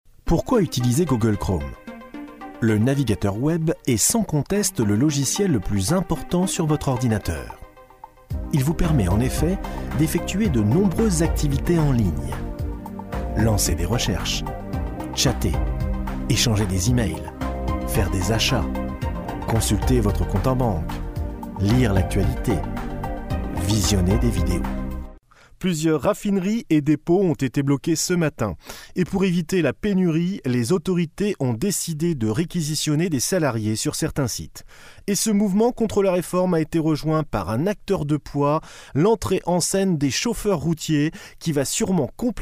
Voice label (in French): voix reportage documentaire